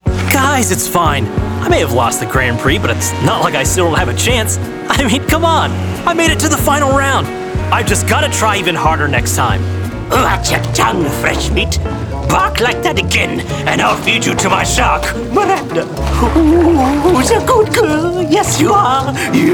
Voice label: Character